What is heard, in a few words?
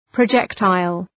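"projectile", English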